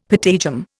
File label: "patagium", Indian; Dictionary